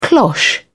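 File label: "cloche", British English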